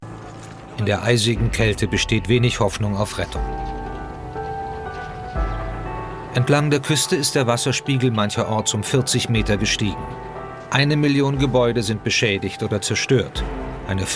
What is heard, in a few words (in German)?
Kommentar, maenner; Wetterwahnsinn